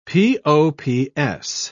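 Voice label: "pops", British English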